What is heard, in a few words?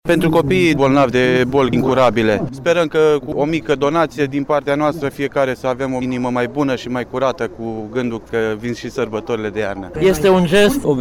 Vox casuta de sticla adulti